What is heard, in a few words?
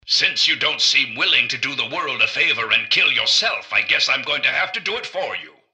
generic robot alert to combat; mrgutsy; npc